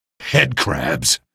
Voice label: alert head, male; video games; npc; source engine; episodic; episode 1